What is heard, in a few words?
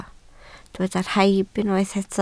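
"rhaib" Welsh, spoken word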